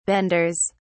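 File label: "benders", English, British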